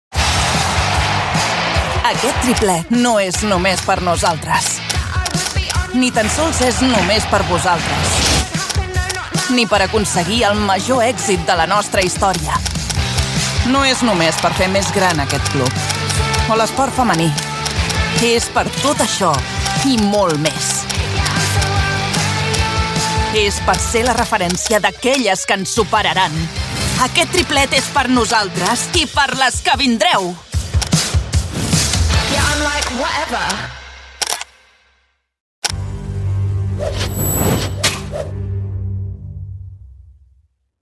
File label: catalan womens promo; fcb